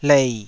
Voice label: "lei3", Taiwanese syllable